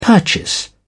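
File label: "purchase", British English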